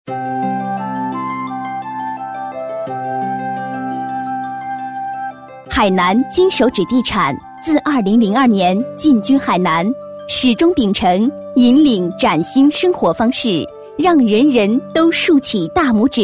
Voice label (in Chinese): 专题 海南地产企业, 国语女声配音